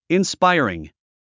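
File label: "inspiring", English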